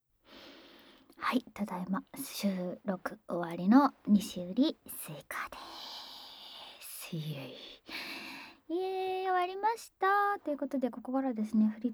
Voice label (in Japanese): フリートーク